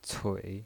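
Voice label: "cui", Mandarin Chinese pinyin voice, third tone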